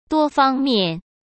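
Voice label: "多方面", Mandarin Chinese; chinese flashcards; hsk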